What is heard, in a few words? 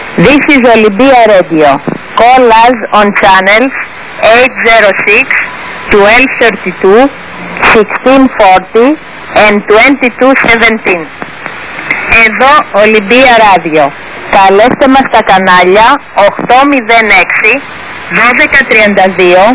Olympia Radio Maritime Voice Marker 17359 kHz; Olympia Maritime Radio (Greece) Voice Marker on 17.359 MHz